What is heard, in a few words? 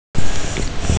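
"ti1", Mandarin Chinese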